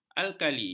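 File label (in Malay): "alkali", Malay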